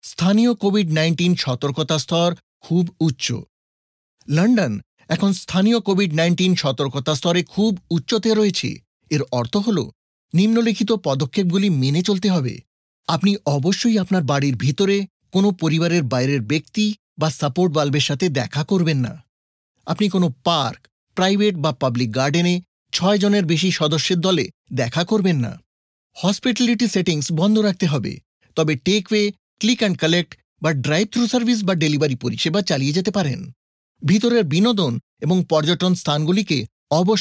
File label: BENGALI Covid London Alert Very High